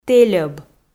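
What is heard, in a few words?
"тэльӧб", Komi, female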